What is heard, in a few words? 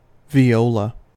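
"viola", US English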